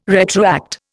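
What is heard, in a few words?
"retroact", Indian English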